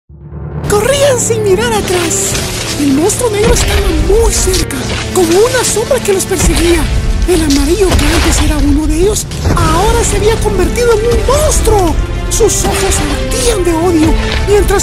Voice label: Animation Anime Spanish